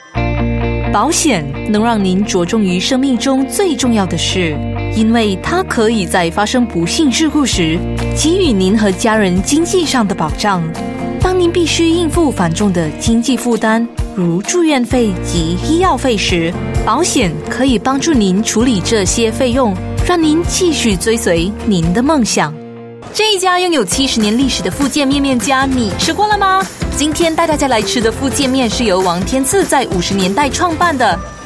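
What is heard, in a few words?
Chinese Malaysia, female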